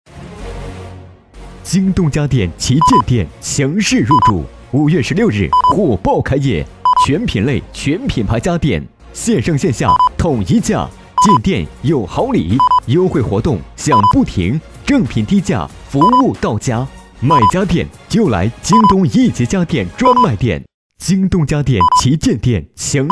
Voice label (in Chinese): 促销